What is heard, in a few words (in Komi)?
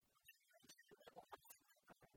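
кутшӧм уличын тэ олан сыктывкарын, Komi, female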